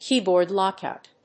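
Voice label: "keyboard lockout", English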